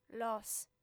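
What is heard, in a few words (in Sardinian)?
"los", Sardinian, female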